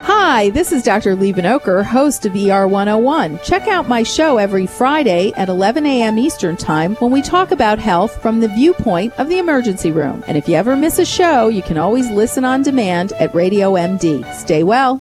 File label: promo; RadioMD Production